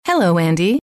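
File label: hello andy, female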